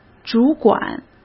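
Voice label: "主管", Mandarin Chinese; hsk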